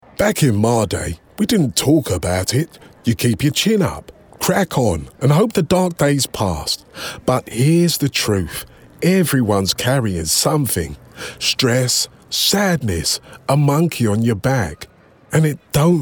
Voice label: Older; Mental Health Campaign